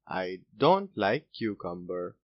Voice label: cucumber dont like, boy, english; grade1; what do you like